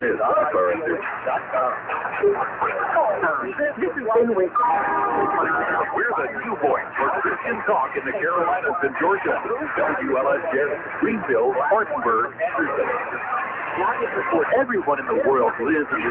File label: radio; 660 wlfj